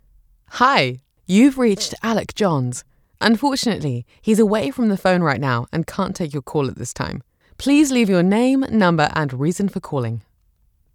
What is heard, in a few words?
english british IVR